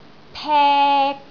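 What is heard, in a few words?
"pek3", Cantonese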